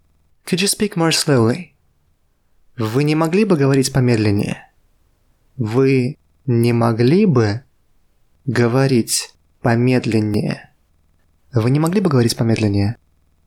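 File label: Russian, Could you speak more slowly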